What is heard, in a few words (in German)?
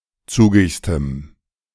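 "zugigstem", German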